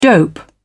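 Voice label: "dope", British English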